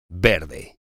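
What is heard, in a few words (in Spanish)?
"verde", Spanish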